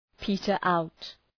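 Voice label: "peter out", English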